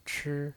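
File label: "chi", Mandarin Chinese pinyin voice, first tone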